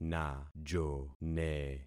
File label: "najone", concat syllables; Affixing experiment